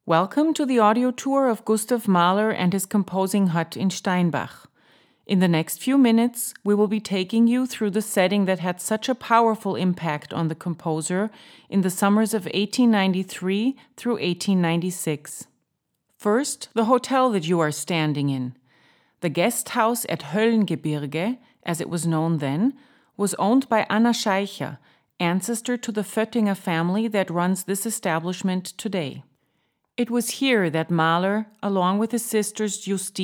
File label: audioguide; Gustav Mahler; eng